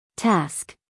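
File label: "task", American English, female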